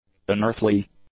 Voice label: "unearthly", English; adjectives